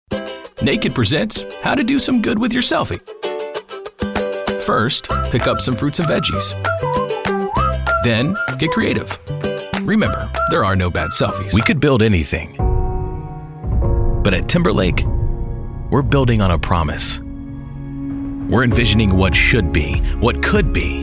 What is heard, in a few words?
Male Voice Over Talents Commercial